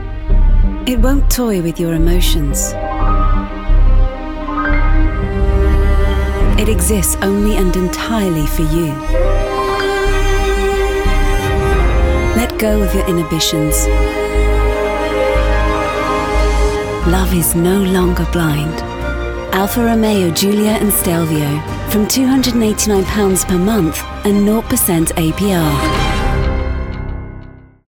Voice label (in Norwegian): Innfødt voice Engelsk (UK); kommentator